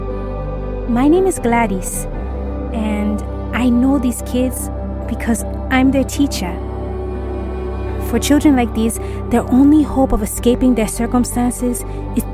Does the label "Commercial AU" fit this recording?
No